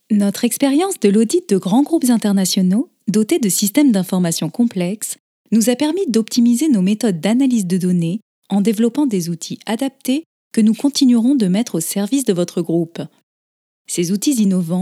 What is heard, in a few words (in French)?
Voix Off Ton Institutionnel; voice